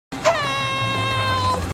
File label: frog saying help; word world